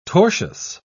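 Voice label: "tortious", English, British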